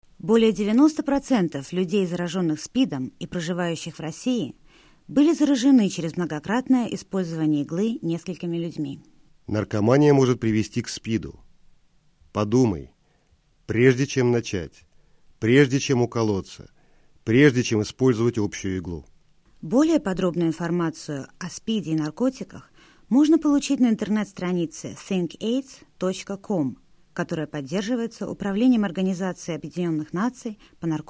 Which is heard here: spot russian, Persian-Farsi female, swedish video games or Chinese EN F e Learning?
spot russian